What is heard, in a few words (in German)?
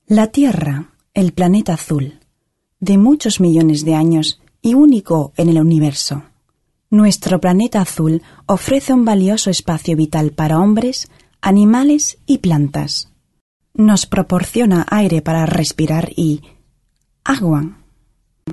Imagefilm span.; Tetra